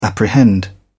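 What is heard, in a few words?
"apprehend", British English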